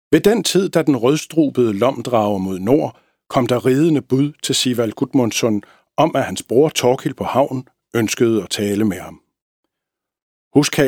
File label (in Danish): Stemmeprøve Oplæsning